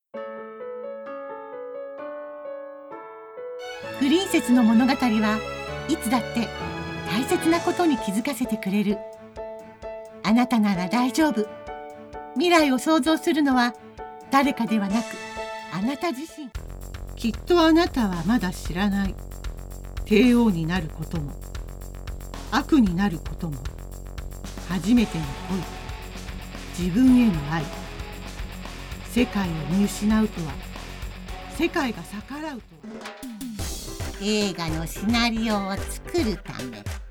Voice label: Japanese Female Movie Trailer